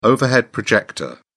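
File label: "overhead projector", British English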